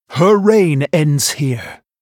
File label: Male Night Elf